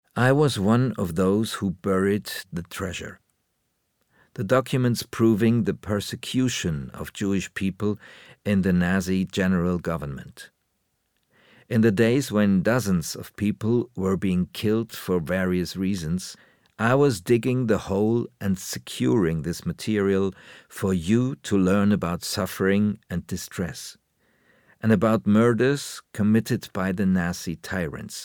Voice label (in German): englisch; Vitrine; showcase